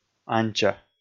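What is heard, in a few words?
"анча", Komi, male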